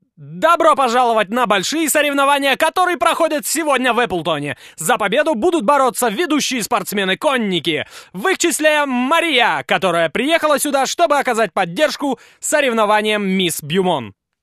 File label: narr